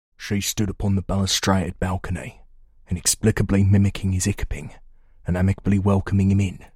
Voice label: UK Cockney Older

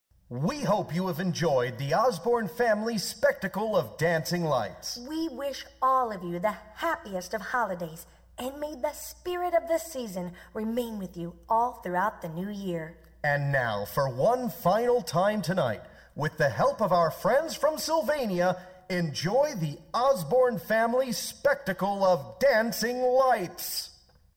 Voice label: Radio Sign Off; WJBO

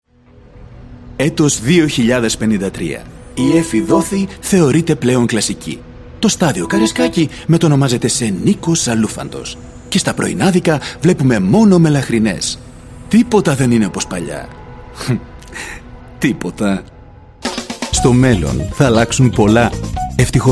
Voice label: MALE VOICE

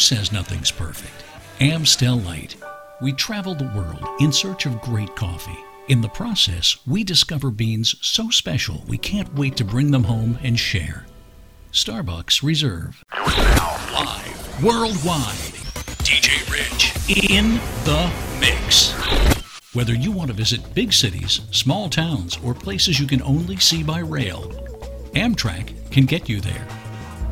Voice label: American; Voiceover Demos

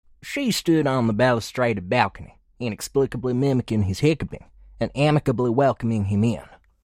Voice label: American Dixie Younger

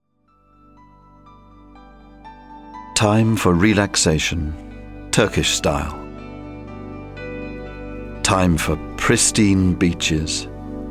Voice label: Turkey - soft sell ad

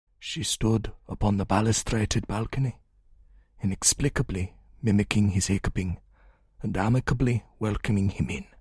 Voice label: German Older

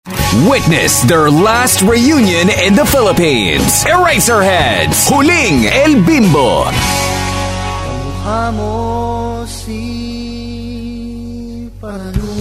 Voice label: CONCERT PROMO Radio Commercial

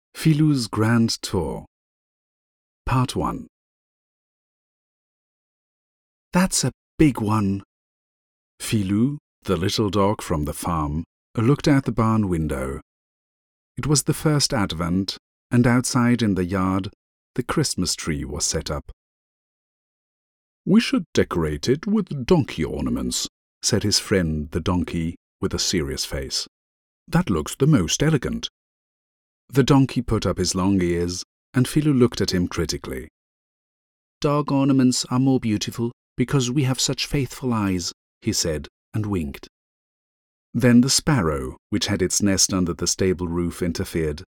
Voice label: Narration Museum

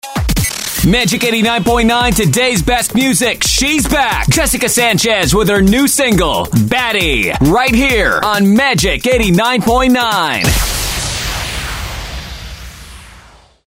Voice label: RADIO AIRCHECK Radio Voice Over; JESSICA SANCHEZ OBB

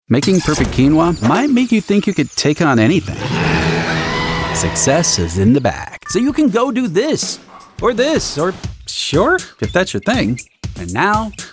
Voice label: Commercial

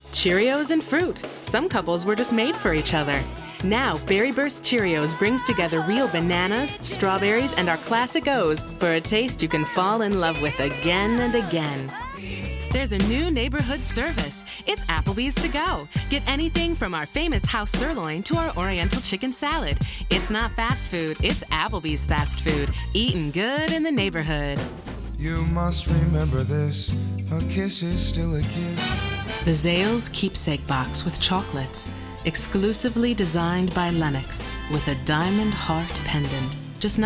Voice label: Commercial; CommercialDemo 2018

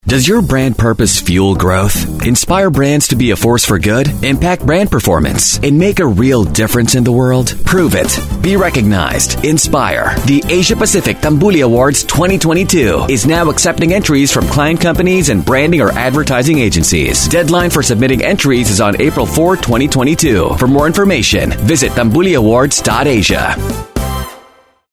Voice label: Radio Announcer Awards Show Commercial; PROFESSIONAL

